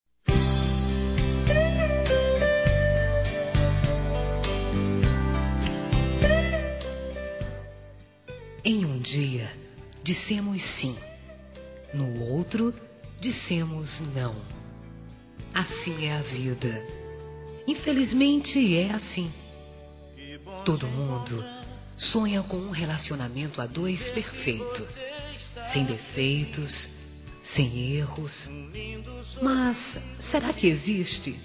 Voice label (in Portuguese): Ex Esposa Feminino; Telemensagens; Românticas